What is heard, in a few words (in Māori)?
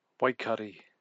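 "waikari", Māori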